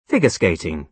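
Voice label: "figure skating", British English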